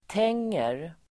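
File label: "tänger", Swedish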